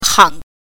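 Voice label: "hang", Mandarin Chinese pinyin, neutral tone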